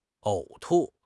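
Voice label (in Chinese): "呕吐", Chinese; HSK6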